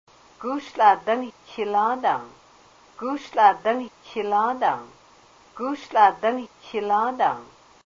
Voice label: what are you drying then, haida language; Gúus / tl'aa dáng xiláadaang?; recorded on Wednesday, March, 2003 in Ketchikan

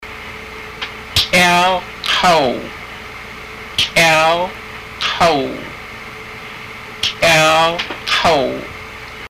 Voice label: calf, haida; K'yaal káw

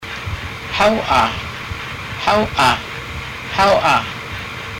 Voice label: "thank you", Haida language; Háw'aa

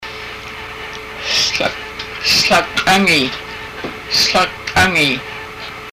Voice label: fingers, haida; Fingers-all together

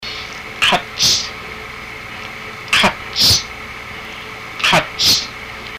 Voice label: hair, haida

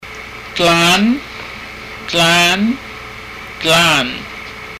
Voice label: glands, haida; Dláan

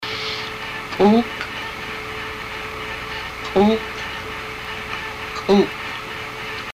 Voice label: heart, haida; K'úuk

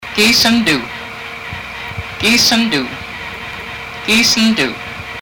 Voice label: "when", Haida language; Gíisand uu

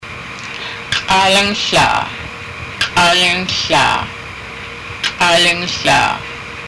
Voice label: write it, haida; K'áalang hláa

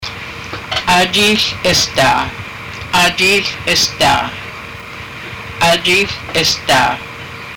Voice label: take this, haida; Áajii hl isdáa

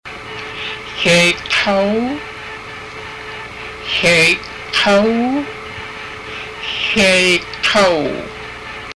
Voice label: arm muscle, haida; Xyáay káw

